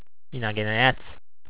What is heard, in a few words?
"inagena", HIROSHIMA ben; いなげな 変な，とっぴょうしもない この言葉の微妙なニュアンスを伝えるのが難しい。私は相手を見下していると思っている。